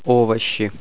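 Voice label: "vegetable", Russian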